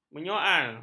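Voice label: "menyoal", Malay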